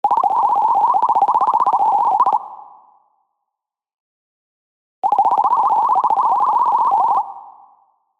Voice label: Audiotag female